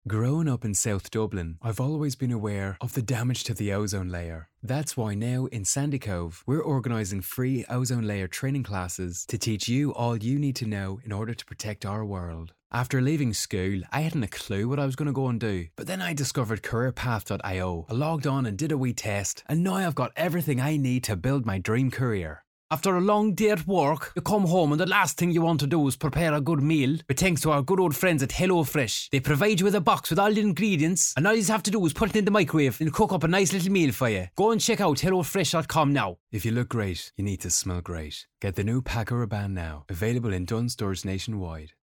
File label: Irish Accents Sample